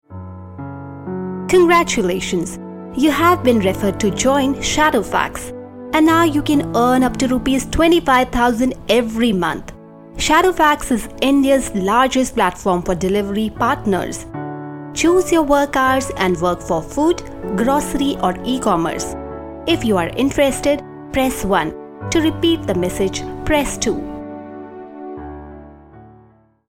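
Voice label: ivr english; shadowfax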